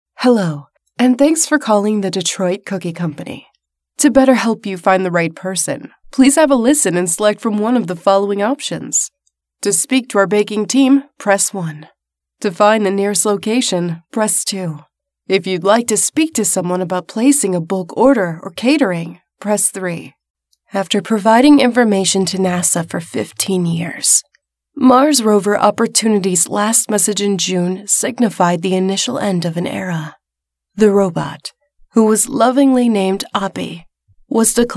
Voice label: US PHONE